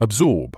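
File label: "absorb", British English; əbˈzɔːb; uhb-ZORB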